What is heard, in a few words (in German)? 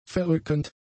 "verrückend", german; adjectives